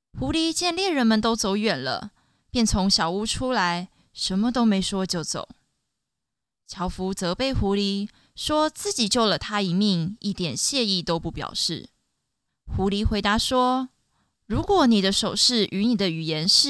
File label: story; 落ち着いた感じの声。; 中国語ナレーター（台湾語）ボイスサンプル; 台湾北京語 ストーリー